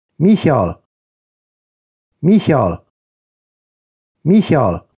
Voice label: "Micheal" Irish names for boys; 50 Irish Names for Boys (and their Pronunciation)